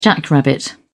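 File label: "jackrabbit", British English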